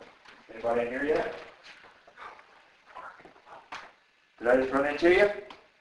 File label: whisper